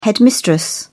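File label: "headmistress", British English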